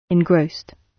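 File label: "engrossed", British English; Time, history; Thời gian, lịch sử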